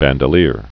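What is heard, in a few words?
"bandoleer", spoken word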